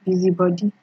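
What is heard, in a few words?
"busybody", Nigerian Pidgin, female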